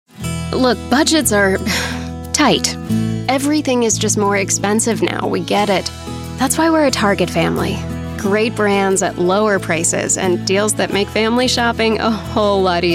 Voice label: american voice actor commercial